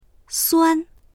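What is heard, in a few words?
"suan1", Chinese; 酸; 酸っぱい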